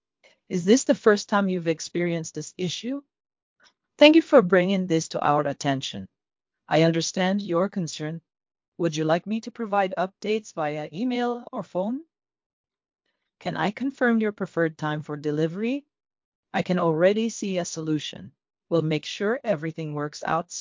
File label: after, Accent Conversion; Krisp; V2